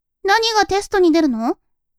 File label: women